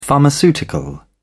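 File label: "pharmaceutical", English, British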